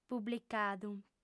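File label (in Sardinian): "publicadu", Sardinian, female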